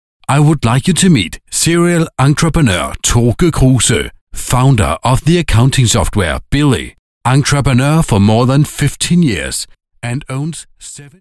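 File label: Danish, accented English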